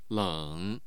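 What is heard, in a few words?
"leng", Mandarin Chinese, tone 3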